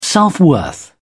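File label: "self-worth", British English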